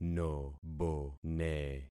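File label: "nobone", concatenated syllables; Affixing experiment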